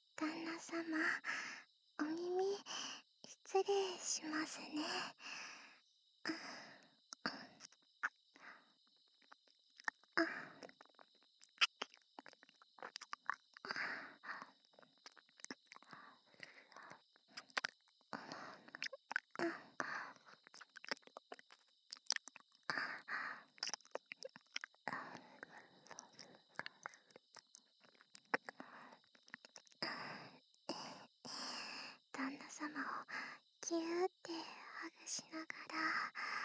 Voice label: ゆったり耳舐め乳首攻め; ひより＆ひなた

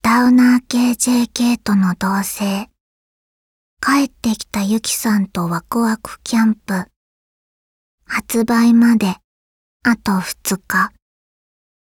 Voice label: カウントダウンボイス2日前

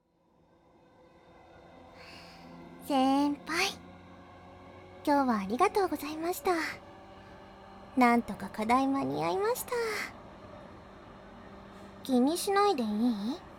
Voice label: 満員電車で小悪魔の囁き誘惑, バイノーラルマイク 効果音あり大きめ; 月曜日午後

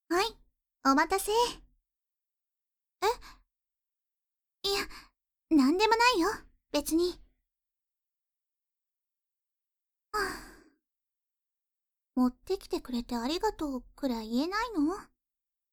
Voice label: ヤンデレゆいなとの添い寝, ASMR

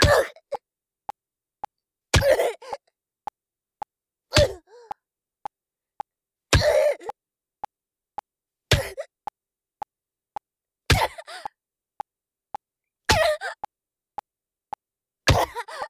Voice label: 腹アッパー メト BPM110 ワン, メトロノームあり

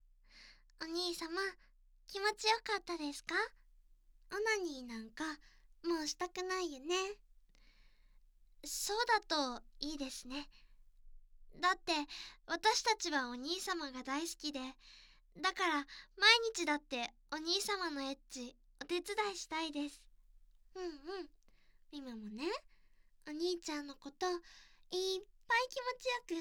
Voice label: ボイスドラマ バイノーラル, ASMR; エンディング

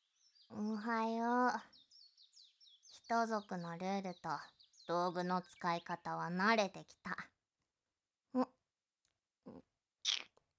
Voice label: クチュ音なし; 優しい日常と共同生活

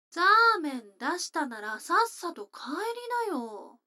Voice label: 女の子 台詞

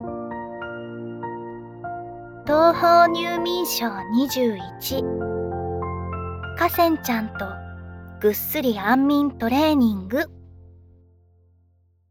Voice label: タイトルコール, ASMR

